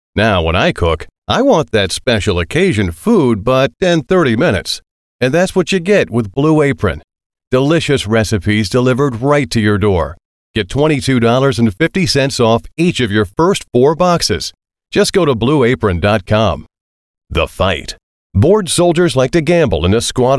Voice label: US English Voice Sample No Music